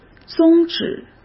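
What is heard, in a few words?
"宗旨", Mandarin Chinese; chinese flashcards; hsk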